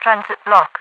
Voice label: transit block, overwatch radio voice; hl2mp